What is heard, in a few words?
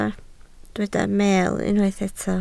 "meel", Welsh